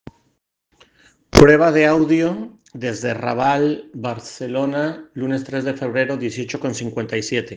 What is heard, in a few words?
Voice message